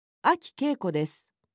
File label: free talk